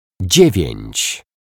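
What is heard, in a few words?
"dziewiec", Polish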